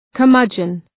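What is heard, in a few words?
"curmudgeon", English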